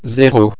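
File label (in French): "zero", French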